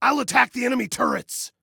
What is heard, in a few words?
Self Attack Turrets, Male